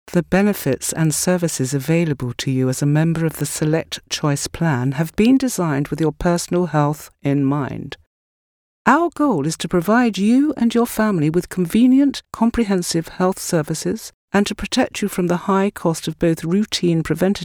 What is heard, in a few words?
Corporate